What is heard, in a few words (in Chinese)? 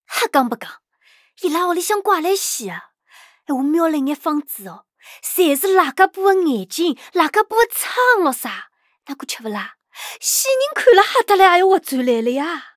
上海人